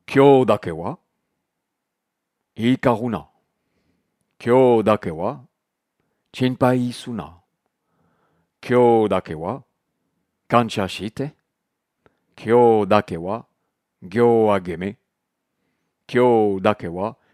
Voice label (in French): 5 ideaux reiki prononciation; GOKKAIS